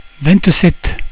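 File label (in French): "vingt sept", French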